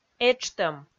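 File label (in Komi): "этштӧм", Komi, female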